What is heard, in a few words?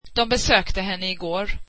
they visited her yesterday, swedish